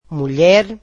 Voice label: "woman", Portuguese